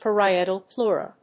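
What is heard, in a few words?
"Parietal pleura", English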